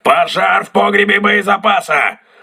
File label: voice message ammunition storage damaged, crew ships russian